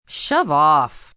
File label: Shove Off, Attitude, female: 'Shove off', annoyed, (human voice speaking); Talking; VoiceBox